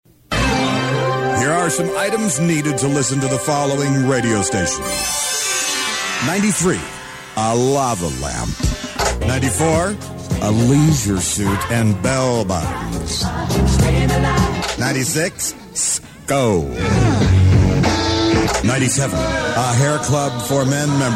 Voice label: Unfinished Promotional Announcement